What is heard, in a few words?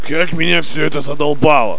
monologue, soldier; svencoop; paranoia